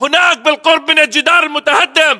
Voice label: landmark near wallbroken, localized english battlechatter